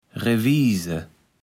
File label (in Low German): "Reviese", Platt